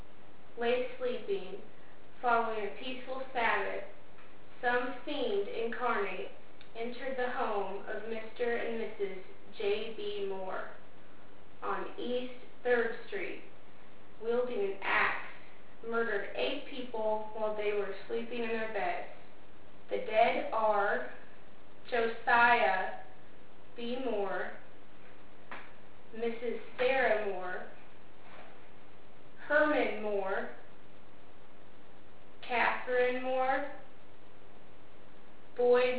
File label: reading from newspaper